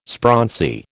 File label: "sprauncy", American